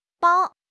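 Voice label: "bao1", Chinese; HSK; HSK3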